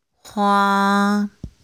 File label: chinese pronunciation f h